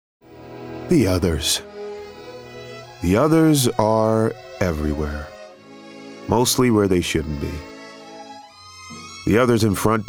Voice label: American; VW